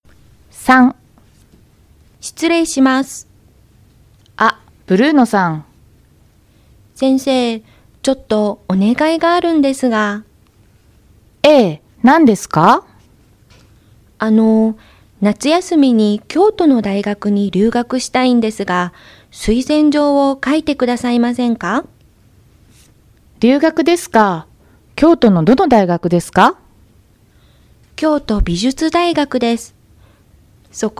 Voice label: Conversation